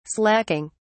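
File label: "slaking", British English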